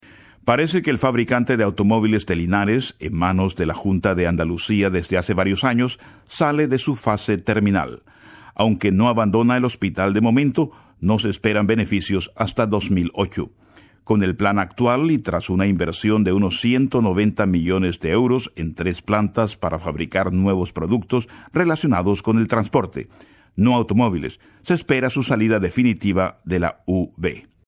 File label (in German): Columbien M